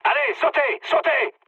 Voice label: bailout mood med, speech fr; War Thunder